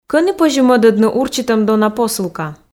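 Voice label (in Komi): Komi, кӧні позьӧ мӧдӧдны урчитӧм дона посылка, female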